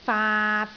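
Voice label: "faat3", Cantonese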